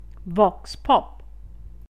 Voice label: vox pop; SONDAGGIO (D’OPINIONE)